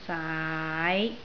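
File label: "saai5", Cantonese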